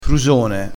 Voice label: "frusone", dialettale crespinese

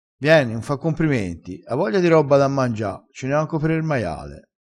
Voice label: "robba", vocabolario dialettale, crespinese

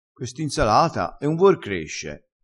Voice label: "sale", vocabolario dialettale, crespinese

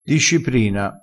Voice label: "disciprina", vocabolario dialettale

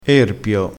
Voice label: "erpio", dialettale crespinese

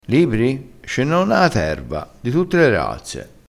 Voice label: "di tutte le razze", dialettale

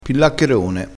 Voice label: "pillaccherone", crespinese

